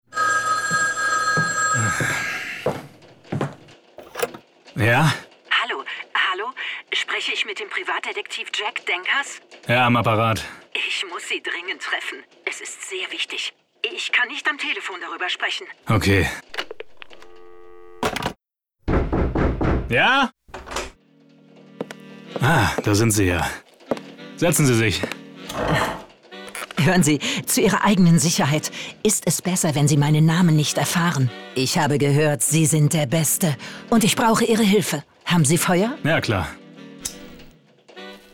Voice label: Hoerspiel, Audio Drama (Hörspiel), German, French

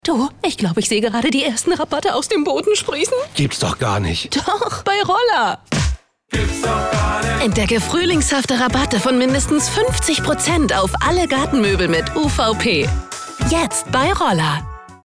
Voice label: Werbung Szene, German; WERBUNG | ROLLER

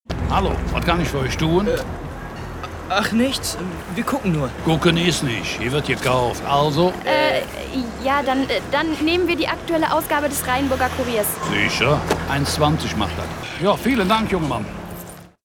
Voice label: Dialekt Koelsch Kucken is nisch Hier wird Jekauft

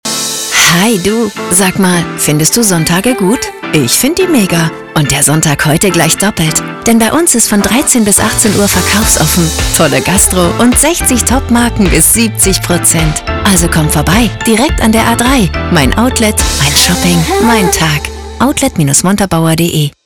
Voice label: Werbung Outlet Sonntag; Montabaur

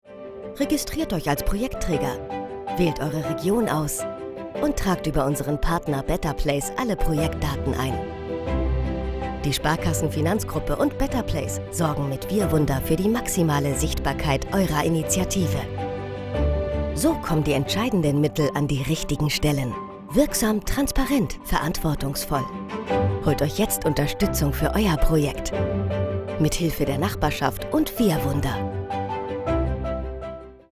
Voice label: Erklaerfilm; WirWunder Projektstarter; LOFT VOICES

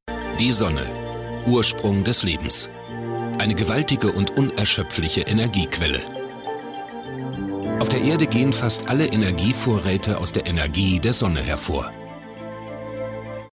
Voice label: kommentar

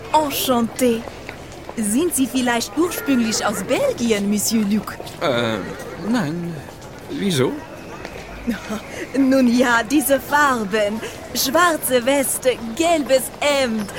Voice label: Hoerspiel Audio Drama Stimmprobe; Lucky Luke

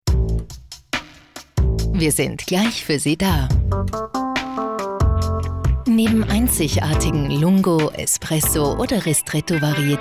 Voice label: Telefonansage; Nespresso

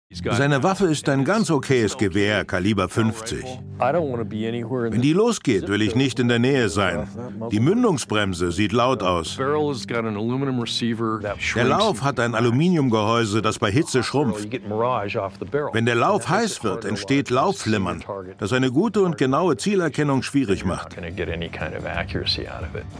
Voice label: Dokumentation; Mad Dog Made

